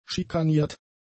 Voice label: "schikaniert", German; adjectives

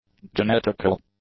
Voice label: "genetical", English; adjectives

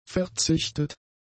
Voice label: "verzichtet", German; adjectives

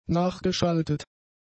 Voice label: "nachgeschaltet", German; adjectives

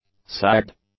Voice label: "sagged", English; adjectives

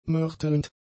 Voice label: "mörtelnd", German; adjectives

